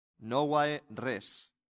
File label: "novae res", latin; santillana